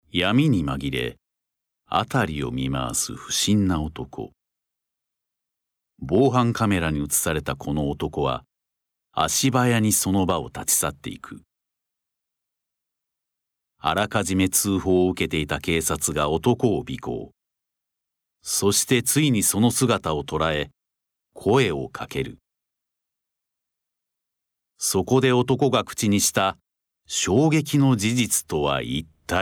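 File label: narration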